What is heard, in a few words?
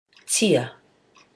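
"tia", Māori; deer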